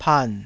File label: "pan3", taiwanese